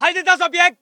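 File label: voice german infantry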